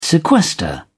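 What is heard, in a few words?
"sequester", British English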